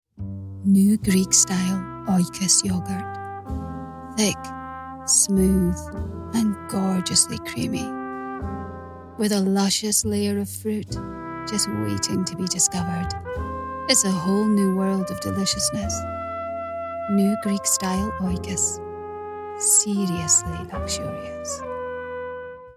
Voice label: Yougurt Commercial